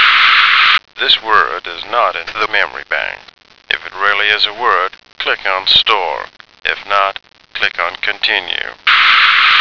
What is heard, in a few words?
"Not In Dictionary", American